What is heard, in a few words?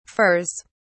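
"furs", British English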